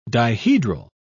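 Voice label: "dihedral", en, British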